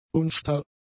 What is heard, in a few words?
"unstarr", German; adjectives